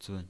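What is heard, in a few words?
"zun", Mandarin Chinese, neutral tone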